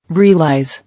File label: "Realize", English